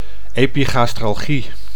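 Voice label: "epigastralgie", Dutch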